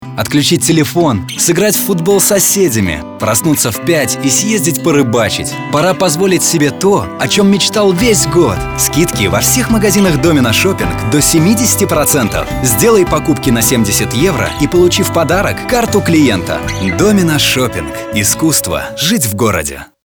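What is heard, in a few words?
Russian, male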